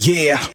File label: "yeah", group of men